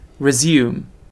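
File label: "resume", British English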